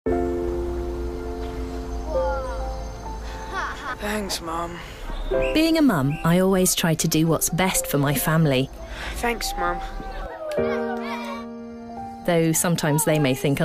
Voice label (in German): werbespot